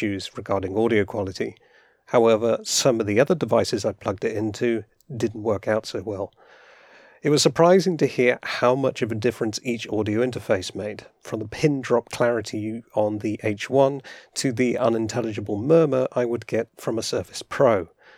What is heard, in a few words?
Antlion ModMic 5 Test Sample